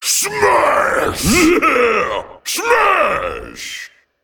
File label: Male Ettin Attack